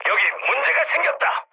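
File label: Korean aircraft damaged mood medium; speech; War Thunder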